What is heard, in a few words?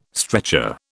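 "stretcher", British English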